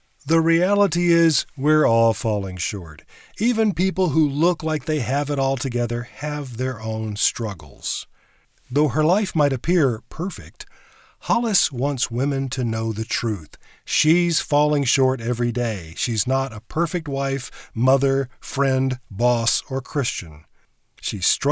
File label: audiobook, Narration; Voice Acting; Character Voices; Announcing